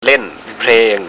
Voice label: "len" playing, Thai